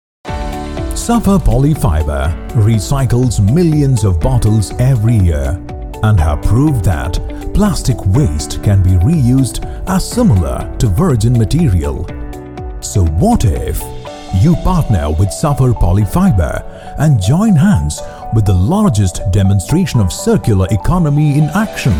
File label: Corporate Narration